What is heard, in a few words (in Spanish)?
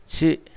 diez, Zapoteco, Magdalena Ocotlan; Números; INALI; Haspelmath